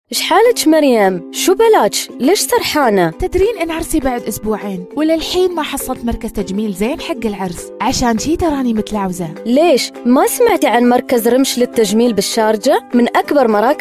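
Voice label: Arabic, Commercial; GoLocalise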